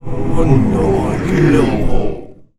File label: Zombie